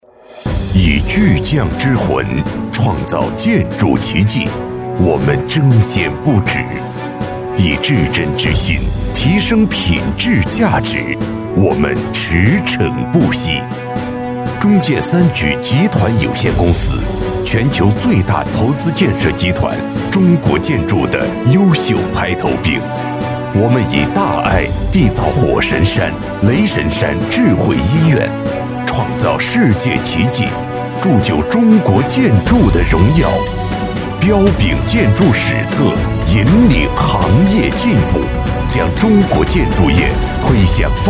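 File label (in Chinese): 男【建筑业解说 大气浑厚】; 中建华润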